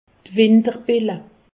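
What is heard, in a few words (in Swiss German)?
"winterbilla", Alsatian; medecine; engelures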